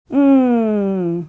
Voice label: cat massage lady mmmmmm